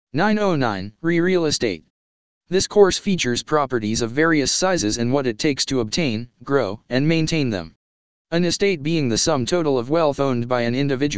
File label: text 2 voice